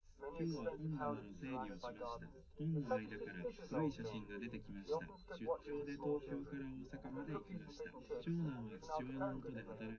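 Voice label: male, live recording; SiSEC11; underdetermined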